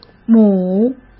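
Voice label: "母", Mandarin Chinese; hsk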